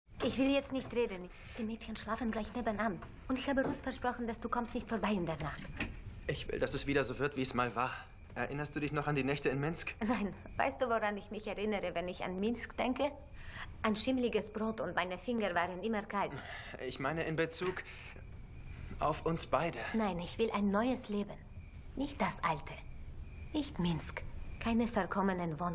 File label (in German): russischer Akzent; derAnschlag